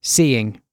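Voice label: "seeing", British English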